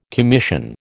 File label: "commission", American